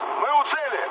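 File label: near waypoint, mood med, Russian; War Thunder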